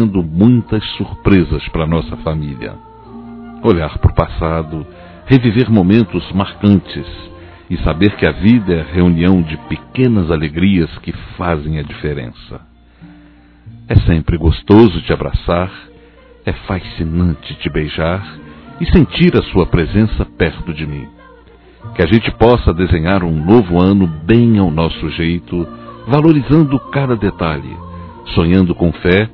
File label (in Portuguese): Natal Romântico Esposa Masculino, Telemensagens; Natal e Ano novo